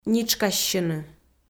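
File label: "нитшкассьыны", Komi, female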